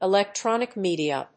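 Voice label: "Electronic Media", English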